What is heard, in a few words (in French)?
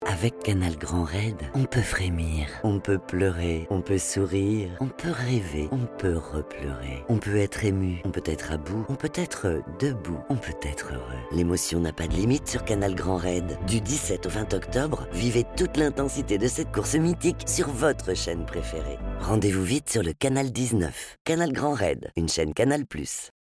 Radio; Canal Grand raid